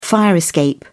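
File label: "fire escape", British English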